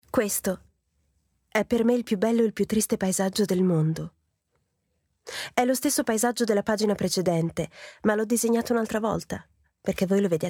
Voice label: female Italian audio narration; extract; piccolo principe the little prince

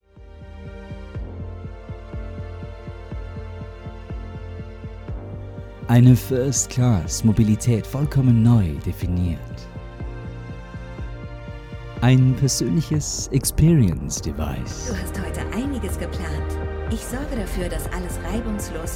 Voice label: male, Austrian, German concept film; audi

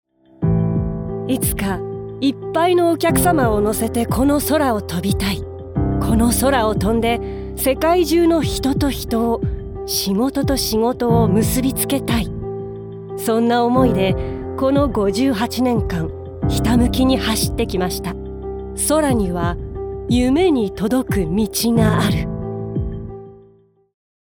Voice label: female, Japanese commercial

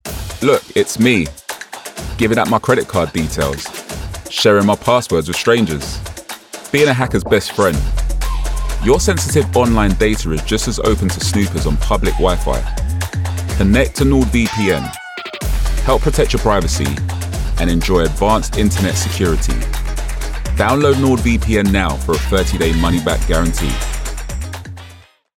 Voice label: male english tv promo; nordvpn; Devine Voices

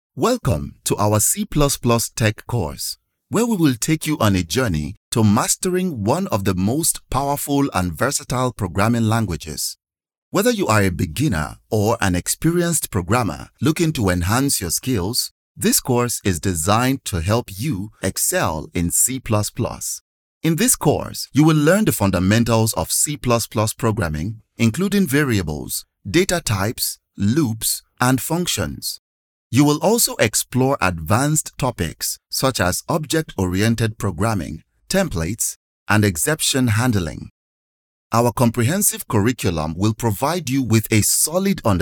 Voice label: male nigerian e-learning

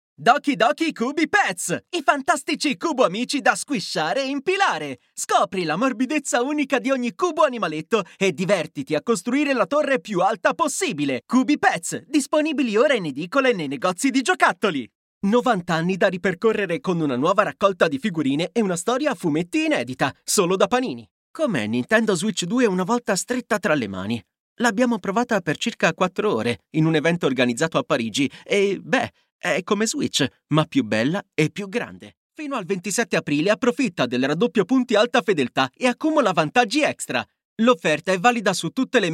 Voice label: male, Italian, commercial; demo reel; voices

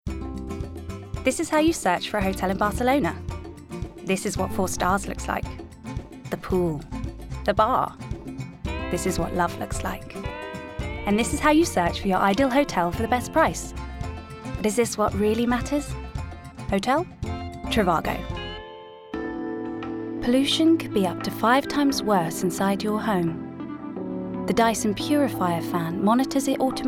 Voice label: female english commercial; english southern accents; reel

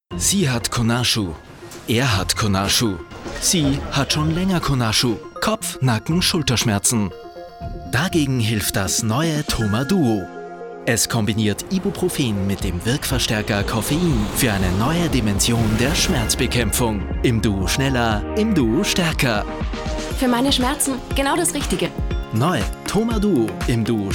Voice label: male austrian german commercial

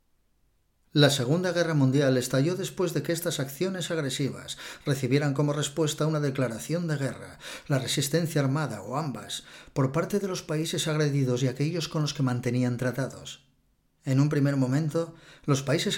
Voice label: male spanish castilian documentary; iigm; Devine Voices

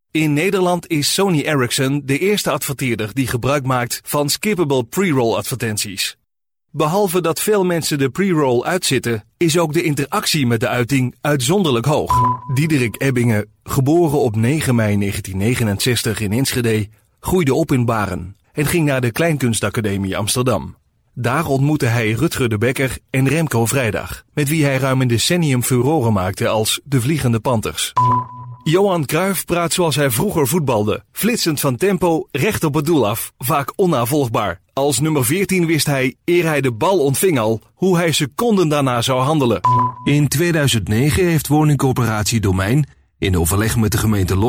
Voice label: male dutch corporate narration

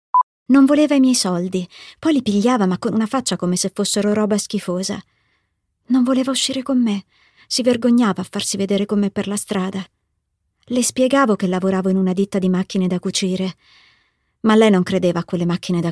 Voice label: female, Italian audiobook narration compilation

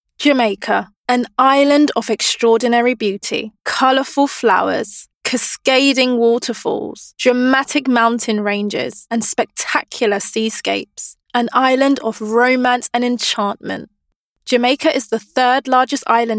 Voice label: female English narration compilation

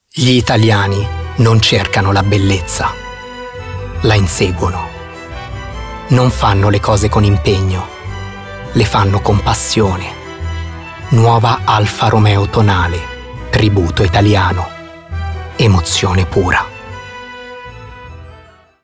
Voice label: male italian commercial; alfa romeo tonale

